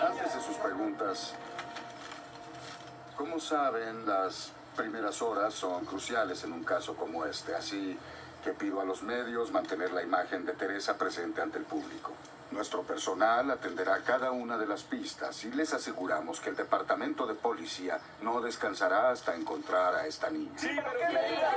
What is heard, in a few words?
spanish audio